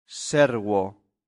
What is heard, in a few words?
"servo", Latin; santillana